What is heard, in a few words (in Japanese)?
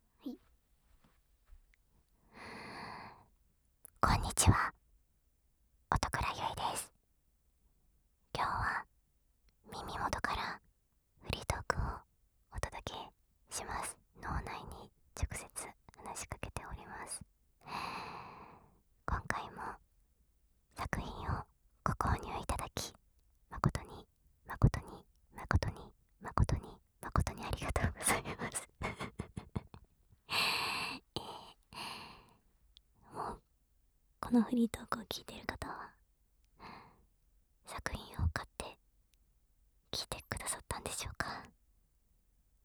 フリートーク